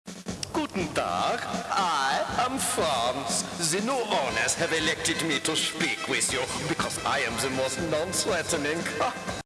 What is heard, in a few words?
german